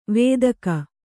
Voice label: "ವೇದಕ", kannada

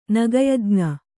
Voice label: "ನಗಯಜ್ಞ", Kannada

